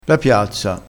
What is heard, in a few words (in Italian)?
"piazza", crespinese